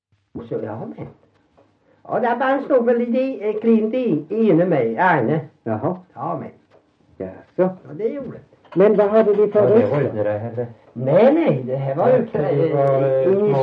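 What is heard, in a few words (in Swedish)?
Värö Halland, Svenska sagor dialekter; Folkminnen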